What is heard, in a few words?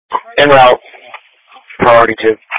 Police Patrol 1 (Dispatch)